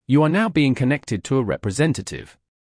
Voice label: connected, phone british